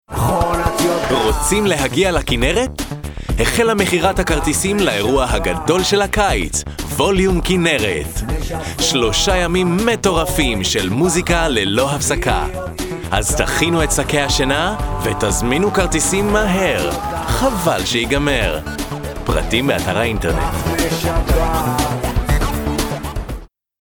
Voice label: hebrew